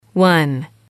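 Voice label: "one", female, english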